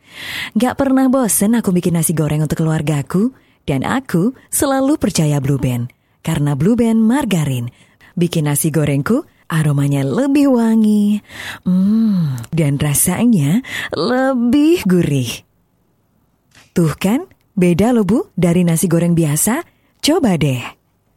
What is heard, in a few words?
Female Indonesian